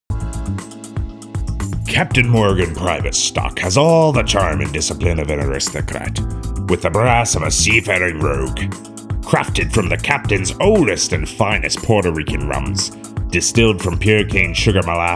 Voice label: voice over; captian morgan